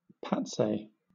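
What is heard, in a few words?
"pace", British English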